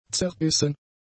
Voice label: "zerbissen", German; adjectives

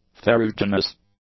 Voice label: "ferruginous", english; adjectives

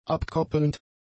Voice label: "abkoppelnd", German; adjectives

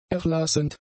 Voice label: "erlassend", German; adjectives

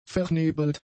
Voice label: "vernebelt", German; adjectives

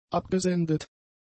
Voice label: "abgesendet", German; adjectives

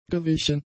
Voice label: "gewichen", German; adjectives

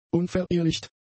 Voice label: "unverehelicht", German; adjectives

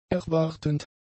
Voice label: "erwartend", German; adjectives

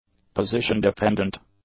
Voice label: "position-dependent", english; adjectives

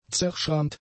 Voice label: "zerschrammt", German; adjectives

